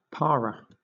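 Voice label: "para", British English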